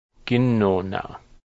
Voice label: indian agent, Blackfoot